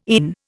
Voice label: "ENE", Indian